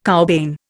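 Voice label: "cowbane", Indian English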